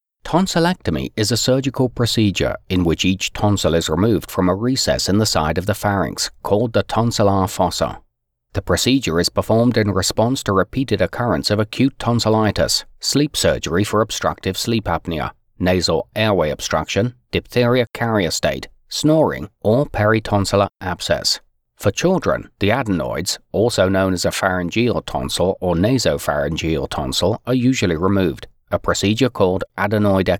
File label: Medical Voice-over Stereo